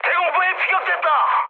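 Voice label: Korean damaged aa mood high, speech; War Thunder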